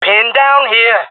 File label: pinned, jungle male; agents